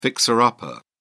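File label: "fixer-upper", British English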